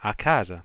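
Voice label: ready to dial home phone for, Italian, Voice Sounds; Samsung SGH-i310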